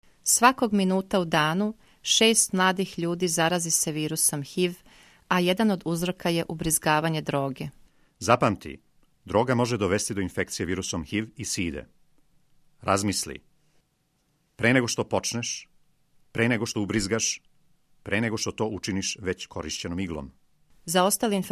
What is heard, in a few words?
spot serbian